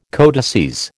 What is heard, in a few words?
"codices", American